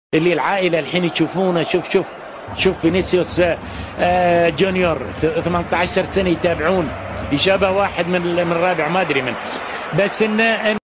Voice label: sport, arabic dialect